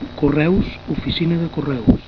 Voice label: "correus", Catalan

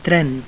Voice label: "tren", catalan

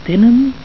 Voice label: "tenen", Catalan

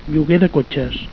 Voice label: "lloguer", Catalan

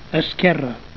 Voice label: "esquerra", Catalan

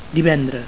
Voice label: "divendres", Catalan

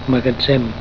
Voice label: "magatzem", Catalan

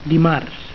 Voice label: "dimarts", Catalan

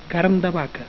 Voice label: "carn de vaca", Catalan

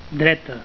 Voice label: "dreta", Catalan

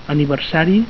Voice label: "aniversari", Catalan

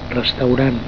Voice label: "restaurant", catalan

